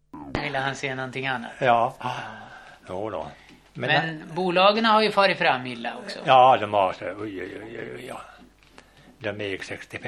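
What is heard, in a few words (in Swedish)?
Nås Dalarna, dialekter; Folkminnen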